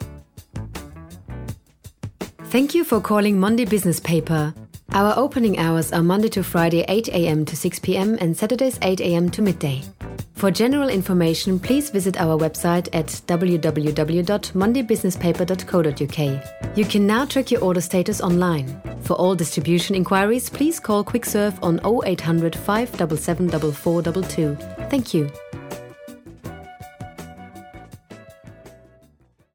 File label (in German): Tele Ansage EN